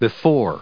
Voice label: "before", en us